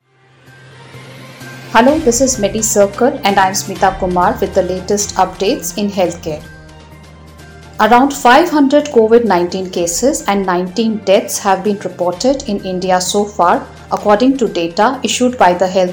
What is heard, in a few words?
english news